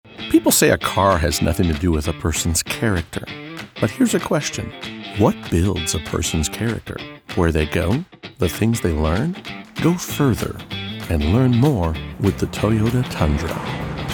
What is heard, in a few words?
commercial, Voice Talent